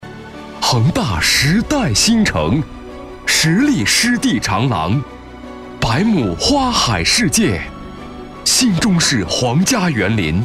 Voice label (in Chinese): 男 广告 房地产 激情有力; 恒大新城